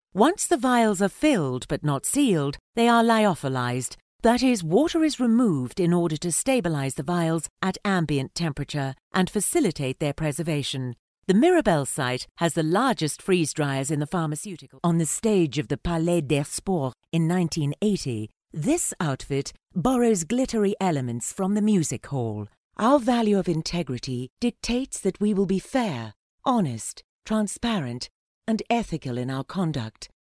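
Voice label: English British, female, voice over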